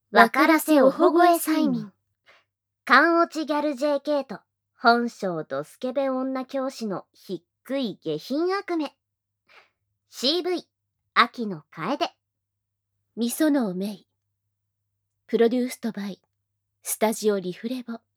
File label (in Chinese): 標題朗讀; noSE